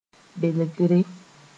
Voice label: "belgre", Mooré